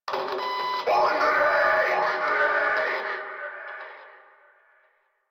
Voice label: Yell From Skiff; en; Warframe; Eidolon; Grineer